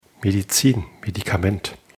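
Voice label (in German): "Medizin", German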